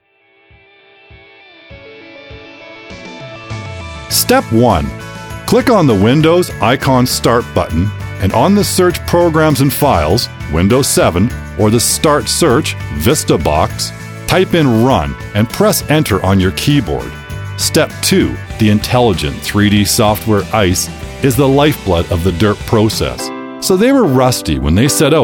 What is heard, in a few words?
Narration